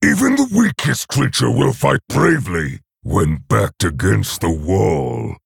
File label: Male Orc Bark